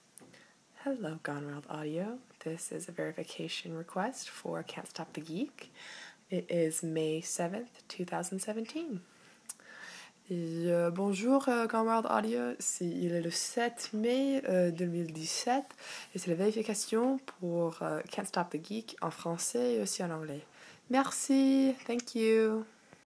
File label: Verification Request English French, F